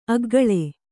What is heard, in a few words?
"ಅಗ್ಗಳೆ", Kannada; aggaḷe; noun